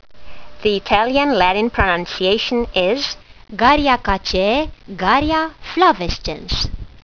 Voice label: latin; Garrya flavescens